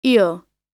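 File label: "eel", American English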